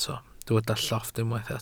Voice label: "llofft", welsh

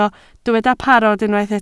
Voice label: "parod", Welsh

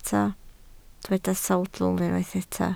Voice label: "sawdl", Welsh

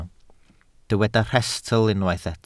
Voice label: "rhestl", Welsh

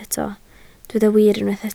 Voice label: "wir", Welsh

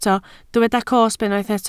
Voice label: "cosb", Welsh

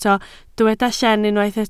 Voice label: "llen", Welsh

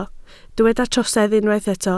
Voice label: "trosedd", Welsh

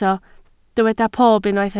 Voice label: "pob", Welsh

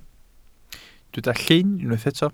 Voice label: "llyn", Welsh

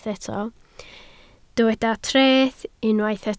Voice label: "treth", Welsh

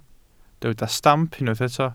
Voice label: "stamp", welsh

